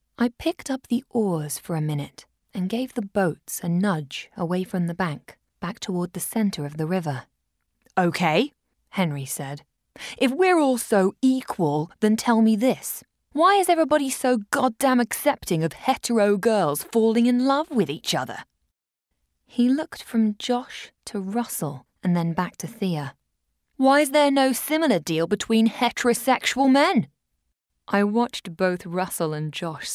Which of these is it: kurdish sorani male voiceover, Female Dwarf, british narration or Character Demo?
british narration